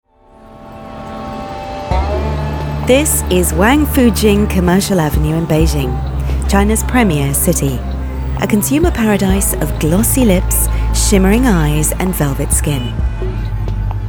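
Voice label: narration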